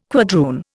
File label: "quadroon", Indian